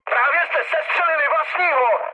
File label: player killed ally, speech cze, mood med